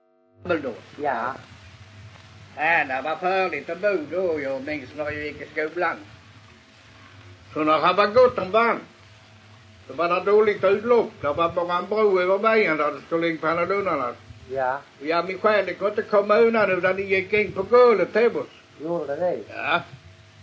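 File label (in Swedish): Allerum Skåne, dialekter svenska sagor; Folkminnen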